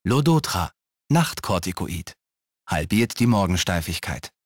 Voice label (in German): Werbung